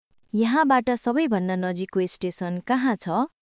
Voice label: Nepali, nepali phrases; 50 nepali phrases you can use while sightseeing or traveling